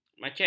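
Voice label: "macet", Malay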